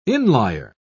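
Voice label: "inlier", British English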